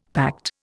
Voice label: "pact", Indian; Dictionary

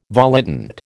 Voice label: "volitant", American

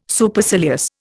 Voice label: "Supercilious", Indian

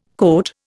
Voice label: "cote", Indian

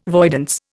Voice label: "voidance", Indian

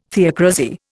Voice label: "theocrasy", Indian

